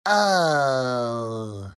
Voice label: happy; shredder

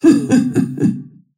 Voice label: happy; snapfire

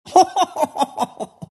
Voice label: monkey laugh; dota; monkey king

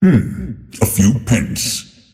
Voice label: lasthit pain; dota; earthshaker; arcana

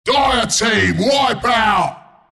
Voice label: announcer kill teamwipe; dlc bristleback killing spree

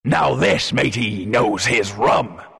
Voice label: announcer pick brewmaster, pirate; dlc workshop; capn

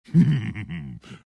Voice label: tiny happy; dota

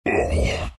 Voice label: treant pain

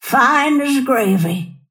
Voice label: lasthit pain; snapfire

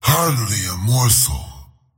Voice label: lasthit pain; slyrak; dota

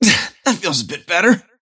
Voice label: lasthit pain; dota; kez

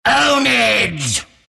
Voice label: announcer ownage; dlc clockwerk killing spree

